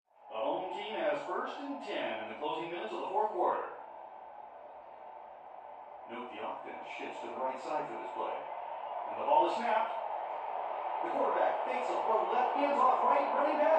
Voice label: Television; Football Game With Announcer, Crowd And Players. From Next Room.